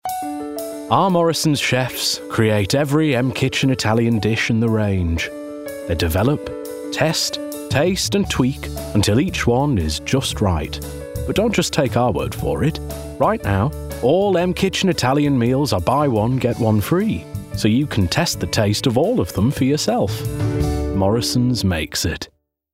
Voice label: COMMERCIAL; Morrisons